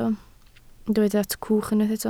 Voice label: "cwch", Welsh

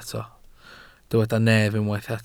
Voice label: "nef", Welsh

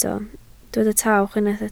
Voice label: "tawch", Welsh

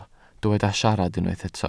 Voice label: "siarad", Welsh

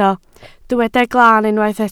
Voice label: "glaan", Welsh

